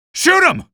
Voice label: alert zombie, male

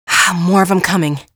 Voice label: combat gradual quiet; hl2; ep1; alyx

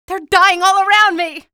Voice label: evac casualty, female

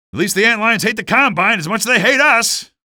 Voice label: alert antlions, male; hl2; ep1

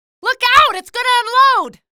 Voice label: alert gunship, female

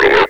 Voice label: alert, combine soldier; hl2

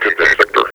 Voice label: contain sector, combine soldier; hl2

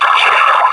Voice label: announce, combine soldier; hl2b

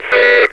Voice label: "shiiit", metropolice; hl2

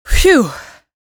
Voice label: phew; hl2; ep1; c17; elev

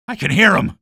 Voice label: alert antlions, male; hl2; ep1

